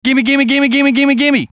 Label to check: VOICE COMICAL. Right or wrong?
Right